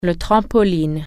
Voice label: trampoline, french

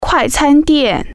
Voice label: "fast food restaurant", Chinese

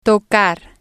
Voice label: "tocar", spanish

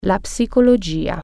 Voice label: psychology, italian

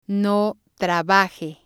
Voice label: "no trabaje", spanish

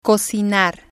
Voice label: "cicinar", spanish

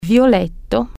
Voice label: violet, italian

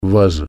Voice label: vase, russian

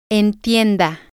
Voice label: "entienda", Spanish

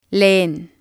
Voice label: "leen", spanish

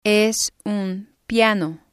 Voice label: "es un piano", Spanish

